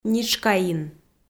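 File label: "нитшкаин", Komi, female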